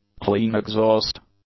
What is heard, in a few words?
"clean-exhaust", English; adjectives